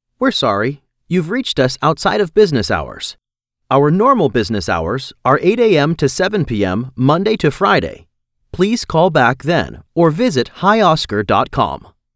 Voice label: On Hold IVR

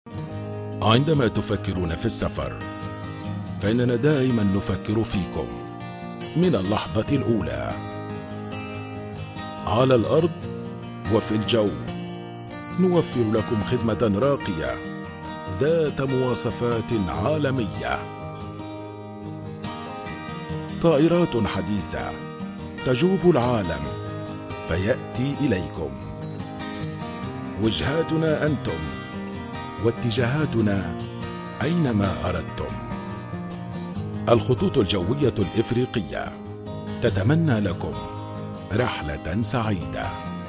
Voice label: airways Tv Ad Arabic VO; Afriqiyah

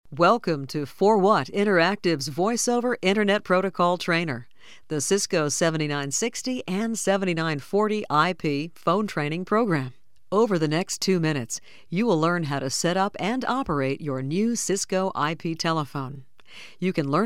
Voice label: IVR ONHOLD

